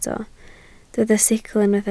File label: "sicl", Welsh